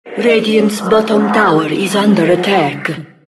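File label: announcer tower attack bot; Diretide 2012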